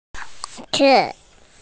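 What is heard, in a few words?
"zi4", Mandarin Chinese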